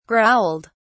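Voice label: "growled", British English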